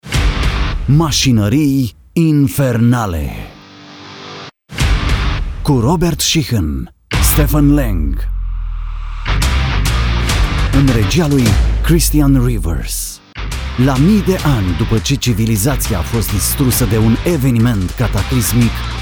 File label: romanian automotive